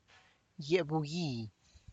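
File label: tlacochahuaya, Zapotec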